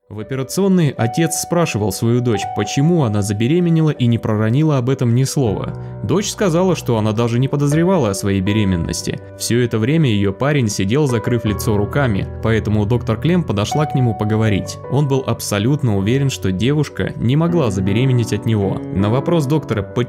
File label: Russian, Male